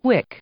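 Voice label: "wick", English, female; noun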